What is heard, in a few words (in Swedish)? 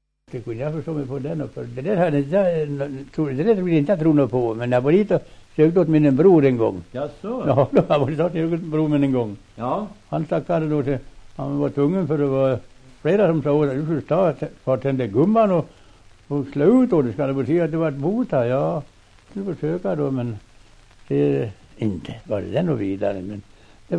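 Västerfärnebo Västmanland, dialekter; Folkminnen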